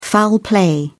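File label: "foul play", English, British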